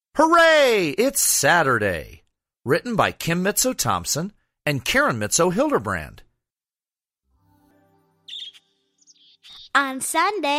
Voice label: English Narration; Hooray! It's Saturday; Twin Sisters